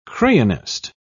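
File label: "crayonist", English, British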